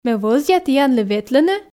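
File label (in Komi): ме вӧзъя тіянлы ветлыны, Komi, female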